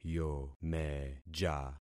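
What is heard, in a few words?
"yomeja", concatenated syllables; Affixing experiment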